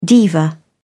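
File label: "diva", British English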